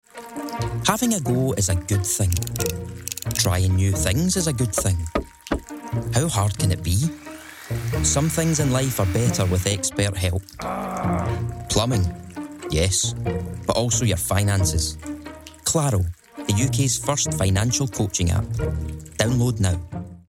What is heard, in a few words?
Commercial; Claro